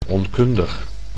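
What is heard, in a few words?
"onkundig", Dutch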